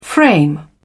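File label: "frame", English, British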